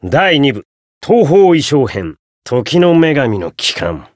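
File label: Voice title, Japanese; hardy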